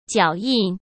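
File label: "脚印", Mandarin Chinese; hsk; chinese-flashcards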